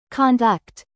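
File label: "produce", verb, British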